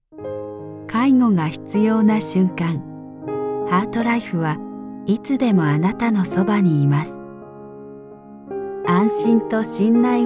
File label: Corporate Reassuring and Confident